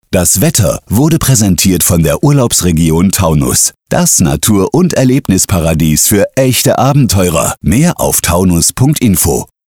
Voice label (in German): Wetter Patronat; Taunus